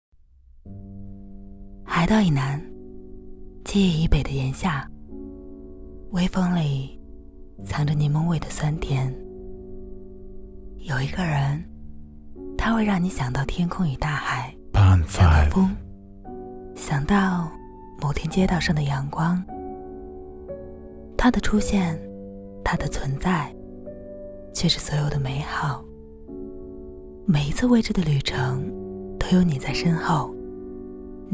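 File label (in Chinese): 女国大音-【微电影】猫的树风格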